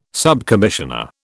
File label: "subcommissioner", British